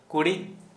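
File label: Santali male hawk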